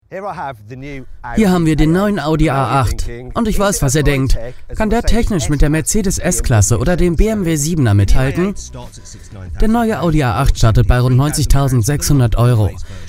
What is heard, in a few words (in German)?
Voice Over Erklaerfilm